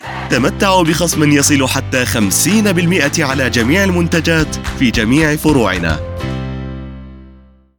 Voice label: Discounts Commercial Upbeat Happy Standard Arabic

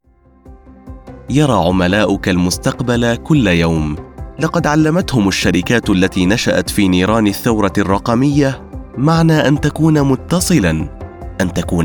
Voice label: Explainer Corporate Authentic Standard Arabic; Digital Revolution

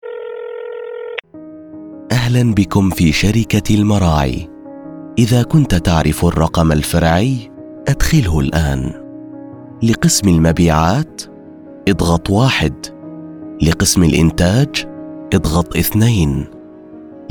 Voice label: Extension Selection IVR Standard Arabic

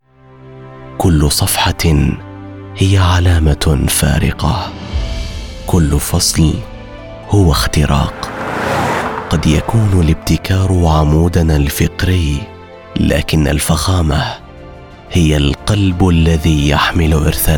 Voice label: Commercial Luxury Powerful Standard Arabic; Audi

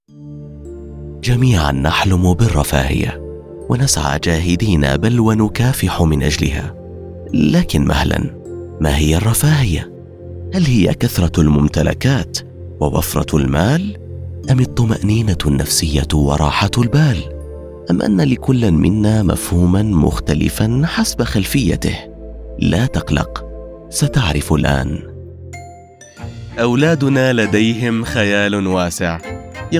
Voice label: Explainer Standard Arabic

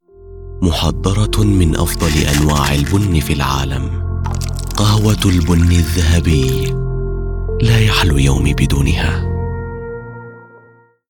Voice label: Commercial Elegant Warm Standard Arabic; Gold Coffe

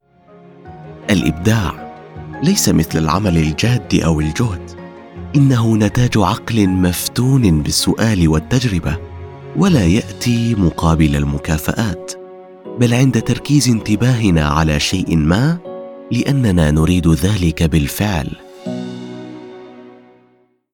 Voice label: eLearning Inspirational Standard Arabic; Creativity

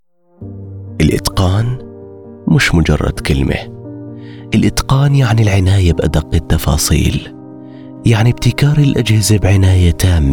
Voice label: Commercial Elegant Luxury Warm Jordanian Arabic; Bosch

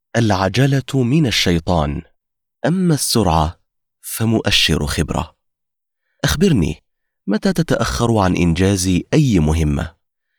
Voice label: Audiobook Standard Arabic

